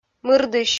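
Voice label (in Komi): "мырдысь", Komi, female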